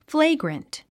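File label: "flagrant", English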